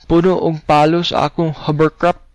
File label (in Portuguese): hovercraft cebuano